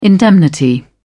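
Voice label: "indemnity", British English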